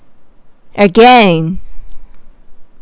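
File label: "again", Japanese, female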